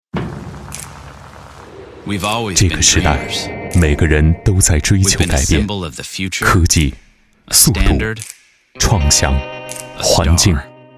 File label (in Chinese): 男 汽车广告 品质感; 凯迪拉克